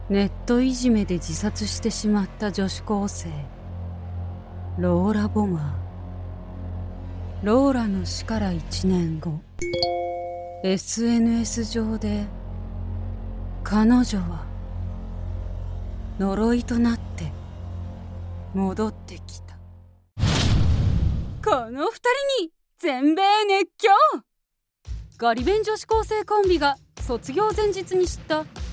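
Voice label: Japanese, general; Golocalise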